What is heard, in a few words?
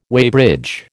"weighbridge", American